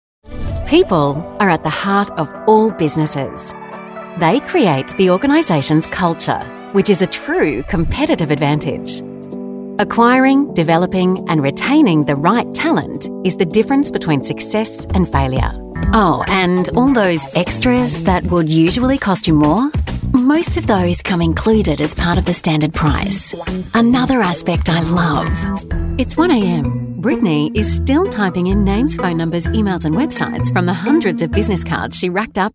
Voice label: COMMERCIAL, voiceover